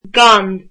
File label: without, irish